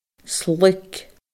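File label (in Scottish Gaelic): "sluig", Scottish Gaelic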